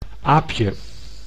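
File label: "aapje", Dutch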